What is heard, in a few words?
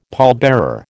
"pallbearer", American